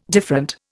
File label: "different", Indian